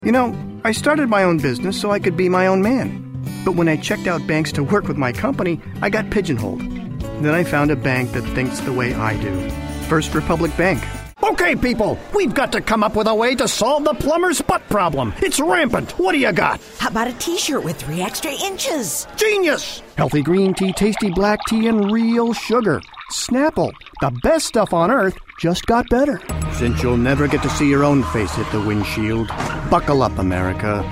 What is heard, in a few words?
Commercial Demo